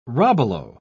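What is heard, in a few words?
"robalo", British English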